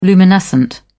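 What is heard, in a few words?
"luminescent", British English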